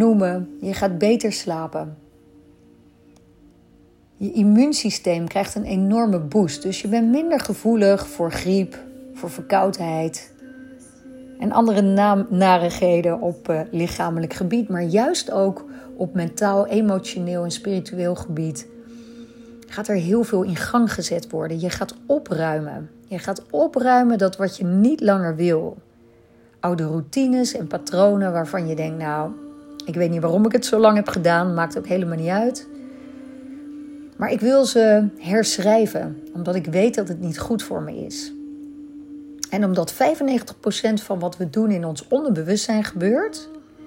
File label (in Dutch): Meditatie adem kou naar verlangen; adem koudetraining; 7 dagen adem koudetraining dag 1